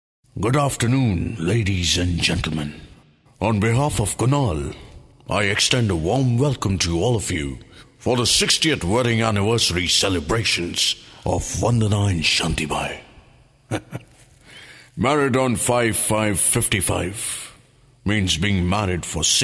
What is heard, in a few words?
male voice